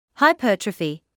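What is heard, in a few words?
"hypertrophy", British English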